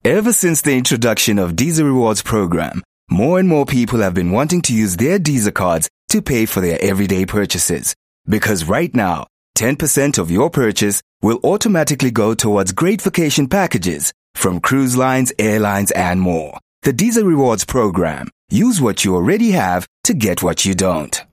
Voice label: English corporate

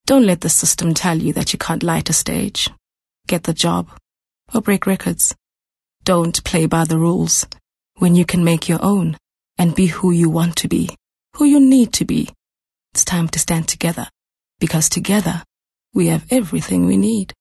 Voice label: English Intimate

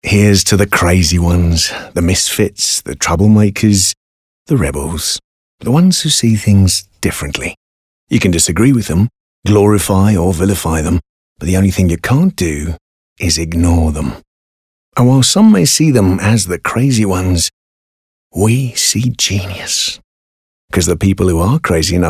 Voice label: English accent British

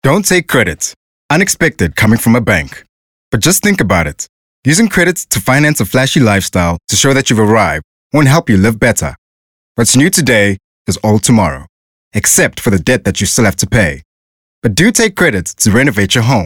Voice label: English Corporate

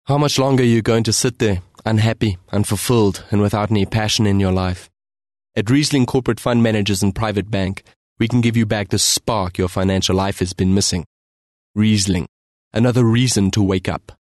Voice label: English Corporate

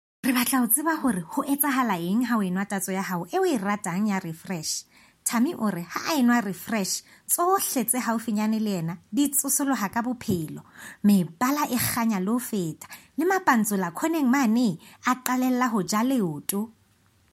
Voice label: Sesotho conversational

